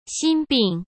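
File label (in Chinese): "心病", Mandarin Chinese; hsk; chinese flashcards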